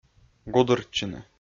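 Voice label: "гудыртчыны", Komi-Zyrian, male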